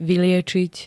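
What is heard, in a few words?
"vyliečiť", Slovak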